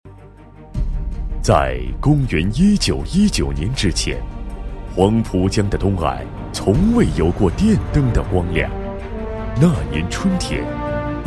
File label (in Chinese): 男 专题 大气企业专题, 国语男声配音 厚重大气